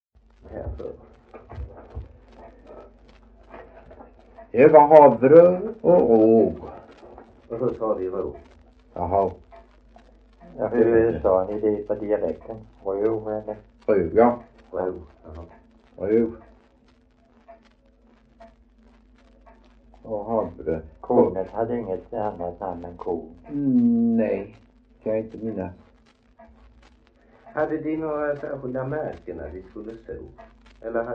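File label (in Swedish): Veddige Halland, dialekter; Folkminnen; Svenska sagor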